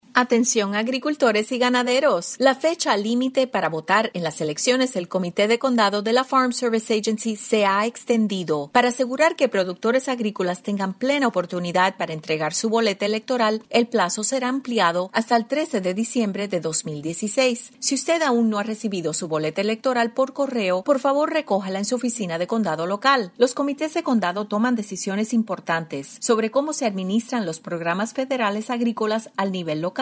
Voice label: spanish psa county commiteee election voting deadline extended; USDA FSA; County Committee Elections